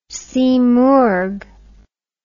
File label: "simurgh", words